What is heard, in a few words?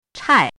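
"chài", Mandarin Chinese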